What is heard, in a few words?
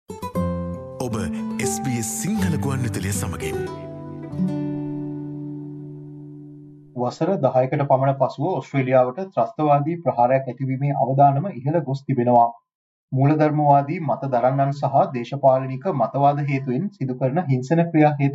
sinhala explainer asio; sbs